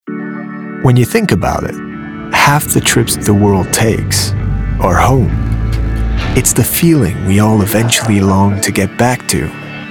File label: male english with usa and french accent commercial; reel